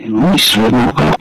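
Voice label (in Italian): "noi", Italian; Noi semo qui; SANT'ERASMO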